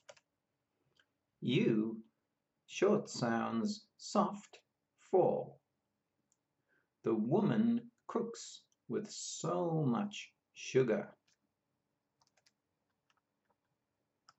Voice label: U short sounds soft, pronunciation of australian english